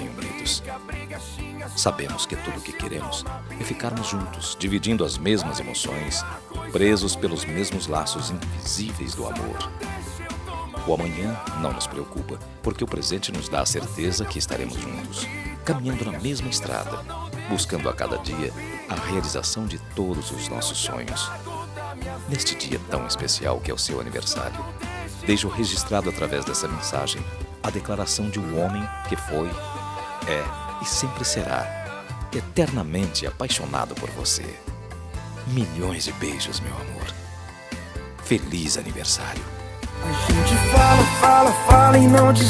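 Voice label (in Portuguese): birthday PARA ESPOSA, telemensagem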